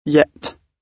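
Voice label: "yet", British English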